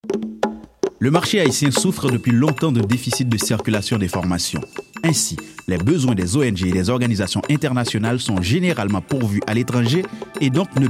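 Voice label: spot radio French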